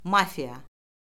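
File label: "mafiya", Azerbaijani; Orfoqrafiya Lüğəti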